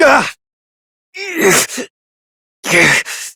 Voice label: Struggle Long Adult; New War; Operator